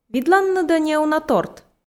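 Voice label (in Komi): видланныд-ӧ неуна торт, Komi, female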